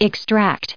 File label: "extracted", us